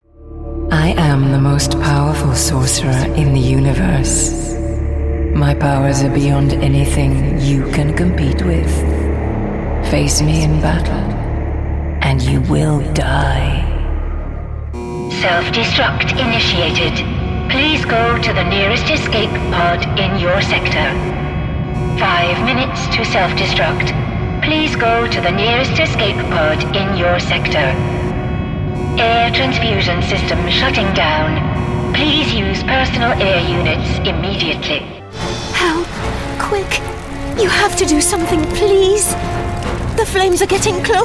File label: Character